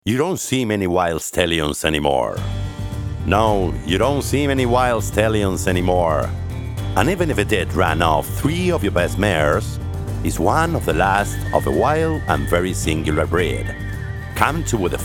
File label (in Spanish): Inglés Americano; voces internacionales